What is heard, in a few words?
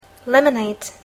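"lemonade", English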